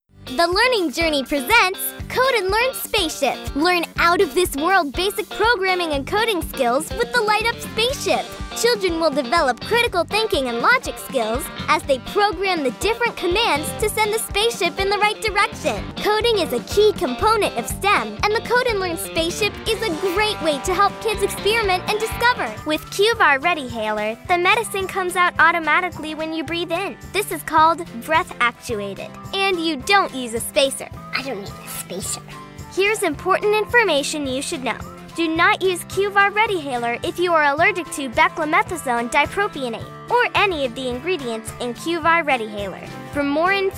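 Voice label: explainer voiceover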